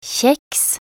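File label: "kjeks", Norwegian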